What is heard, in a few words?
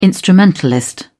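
"instrumentalist", British English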